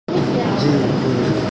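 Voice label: "zhi1zhu1", Mandarin Chinese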